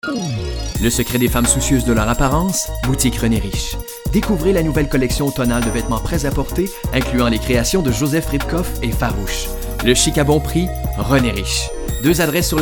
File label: narration